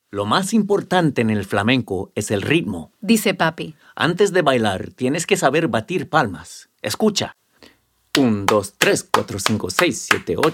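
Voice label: Spanish audiobook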